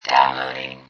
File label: Robot Voice "Downloading"